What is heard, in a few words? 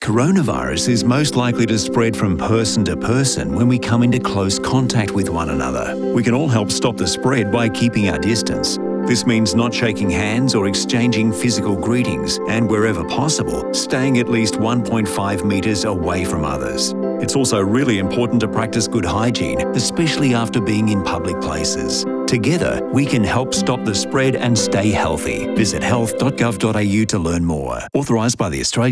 radio social distancing; coronavirus covid 19